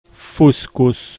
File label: "fuscus", Latin